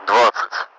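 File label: Russian speech, Mi-8MT; twenty; DCS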